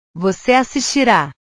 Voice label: "voce assistira", portugues; Tú verás

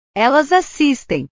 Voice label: "elas assistem", portugues

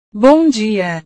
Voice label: "bom dia", portugues

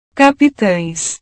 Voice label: "capitaes", portugues

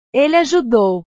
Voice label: "ele ajudou", portugues

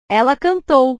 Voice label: "ela cantou", portugues; cantar; portugues/verbos

